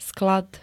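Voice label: "sklad", Slovak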